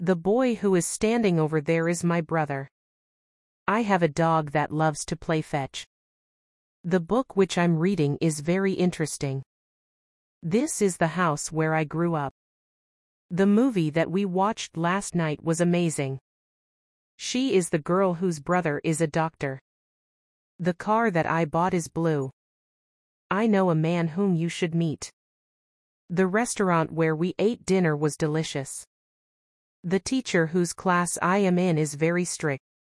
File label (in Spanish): gramatica clase ingles